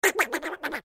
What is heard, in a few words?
cartoon mumble